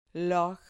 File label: "loj", Spanish